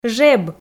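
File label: "жеб", Komi, female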